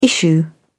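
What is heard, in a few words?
"issue", British English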